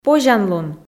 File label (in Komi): "позянлун", Komi